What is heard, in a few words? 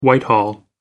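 "whitehall", British English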